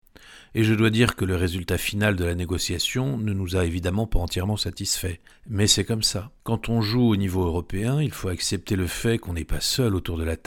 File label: voice over docu